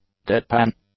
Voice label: "deadpan", english; adjectives

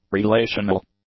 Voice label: "relational", English; adjectives

